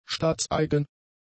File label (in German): "staatseigen", German; adjectives